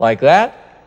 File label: "like that", male; questions